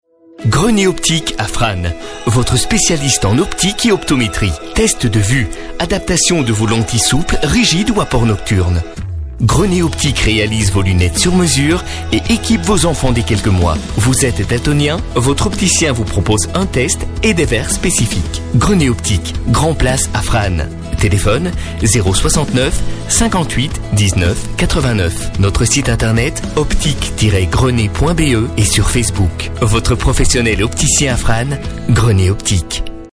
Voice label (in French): Voix Homme, voix off